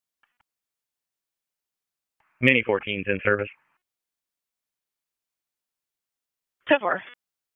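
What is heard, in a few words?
Fire Department HQ Dispatch; RFD HQ DISP; wake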